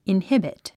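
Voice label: "inhibit", English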